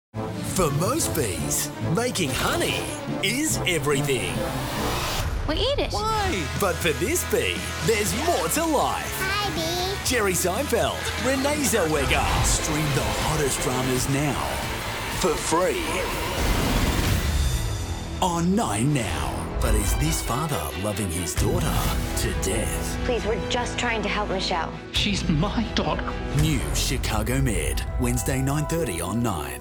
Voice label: PROMOS